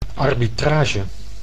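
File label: "arbitrage", Dutch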